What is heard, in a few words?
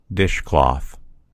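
"dishcloth", American English; gcompris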